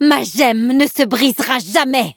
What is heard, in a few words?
levelup, fr; cookie run